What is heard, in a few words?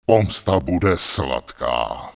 Night Elf Male Charge; wowpreklad; czwow; NightElfVocalMale